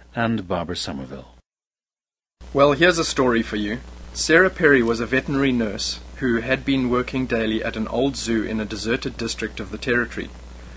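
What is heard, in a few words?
south africa, dialects of English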